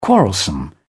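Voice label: "quarrelsome", English, British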